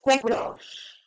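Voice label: "kwekwlos", Proto-Indo-European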